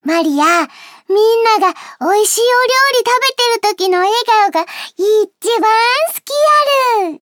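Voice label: info, Japanese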